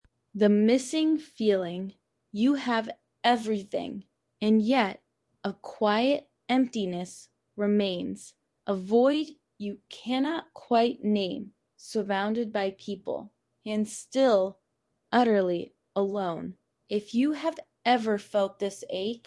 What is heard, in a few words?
poem voiceover